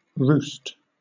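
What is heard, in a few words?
"roost", British English; pronunciations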